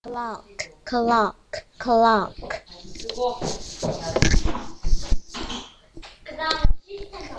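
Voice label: "clock", spoken word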